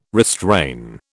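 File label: "restrain", British English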